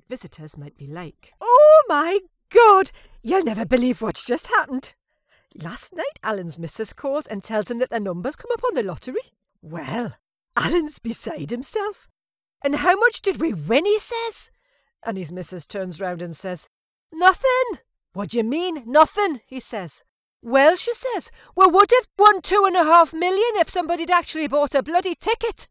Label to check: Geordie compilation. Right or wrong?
Right